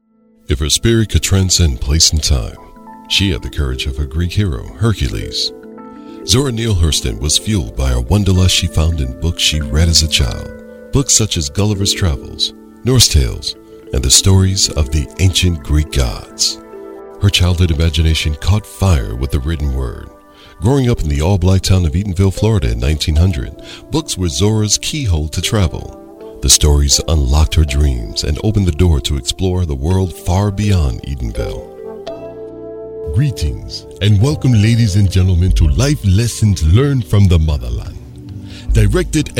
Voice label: Narration, Accents & Announcing